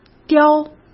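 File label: "叼", Mandarin Chinese; chinese-flashcards; hsk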